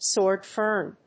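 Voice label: "Sword fern", English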